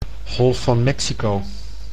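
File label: "Golf van Mexico", Dutch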